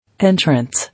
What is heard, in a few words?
"Entrance", English